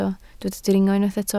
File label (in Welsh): "dringo", Welsh